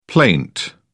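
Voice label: "plaint", British English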